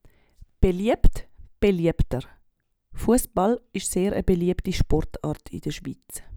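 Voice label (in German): beliebt, Swiss German; Wortschatz Kapitel 8; Kapitel 8 Hauptwortschatz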